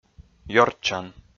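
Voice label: "ёрччан", Komi, male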